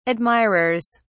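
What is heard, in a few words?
"admirers", British English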